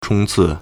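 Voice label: "chōngcì", Chinese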